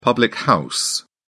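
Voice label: "public house", British English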